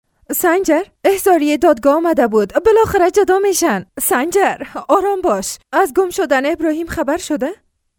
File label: Dari Female